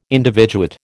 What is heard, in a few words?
"individuate", American